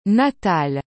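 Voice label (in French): "natal", French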